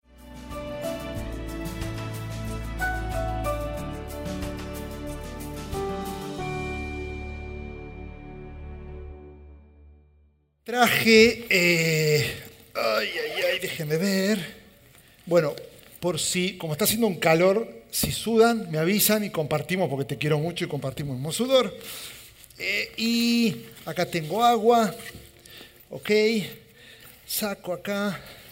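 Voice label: SERMON SPAN, Spanish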